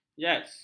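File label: "jaz", Malay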